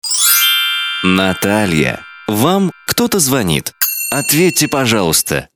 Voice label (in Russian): Женские именные звонки